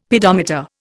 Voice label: "pedometer", Indian; Dictionary